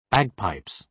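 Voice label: "bagpipes", British English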